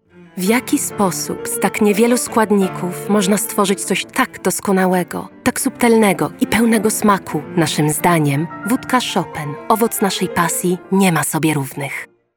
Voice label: polish commercial